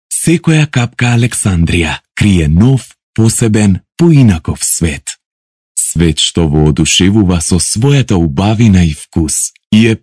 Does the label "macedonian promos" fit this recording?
Yes